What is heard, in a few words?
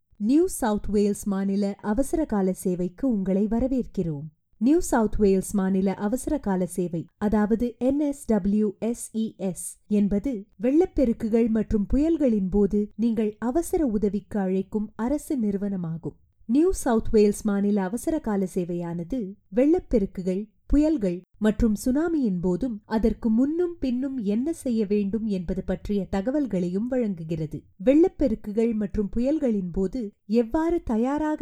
tamil